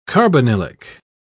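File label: "carbonylic", British English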